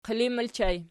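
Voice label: "qilin" ergative plural, Archi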